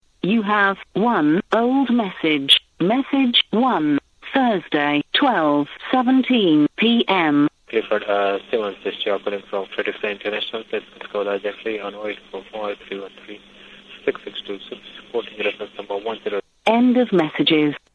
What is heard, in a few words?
answerphone message